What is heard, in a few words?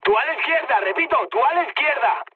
left wing, mood high, speech; War Thunder